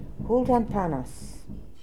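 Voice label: "Hooltanpanas", pronunciations; Fourth Way; Beelzebub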